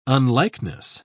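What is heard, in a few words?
"unlikeness", English, British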